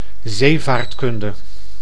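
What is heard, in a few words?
"zeevaartkunde", Dutch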